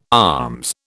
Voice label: "alms", British